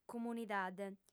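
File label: "capìtulu", female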